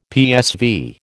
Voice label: "PSV", American

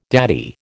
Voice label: "daddy", American

